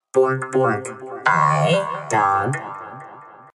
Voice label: bork bork distorted